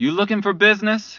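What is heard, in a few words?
male "hey looking for business", pedestrian; cs2d; cityrp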